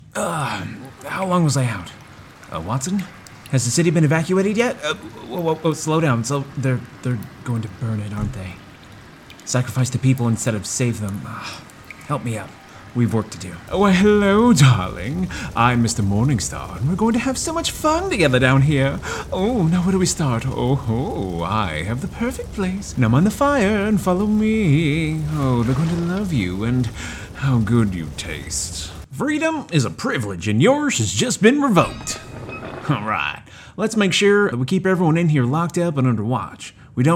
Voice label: No Slate Gaming Demo